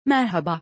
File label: hello in turkish; greetings in turkish